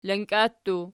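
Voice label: "lanka" plural, Archi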